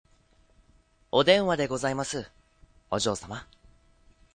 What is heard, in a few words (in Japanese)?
ojou